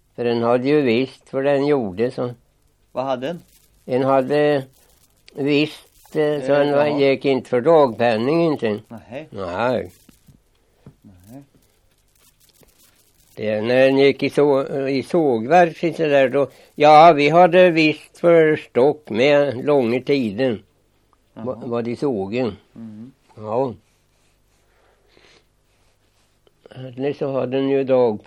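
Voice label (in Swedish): Stjärnorp Östergötland, dialekter; Folkminnen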